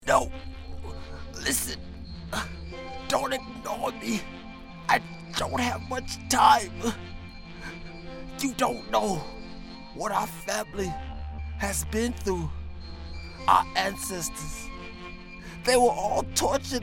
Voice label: Anime Demos